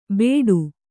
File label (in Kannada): "ಬೇಡು", Kannada